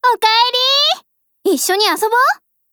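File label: HELLO Japanese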